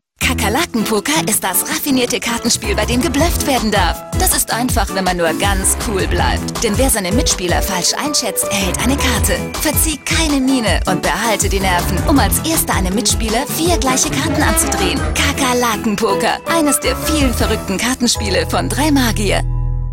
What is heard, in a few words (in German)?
Aufgeregt